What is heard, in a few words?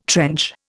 "trench", Indian